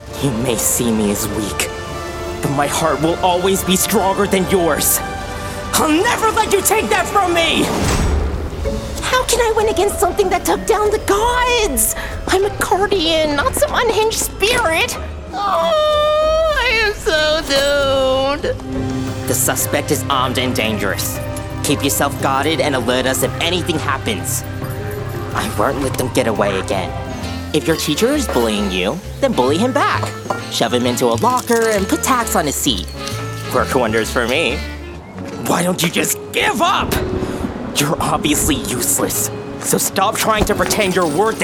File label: Animation, en-GB